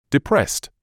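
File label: "depressed", British English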